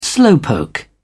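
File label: "slowpoke", British English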